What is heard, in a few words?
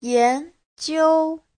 "yan2jiu1", Chinese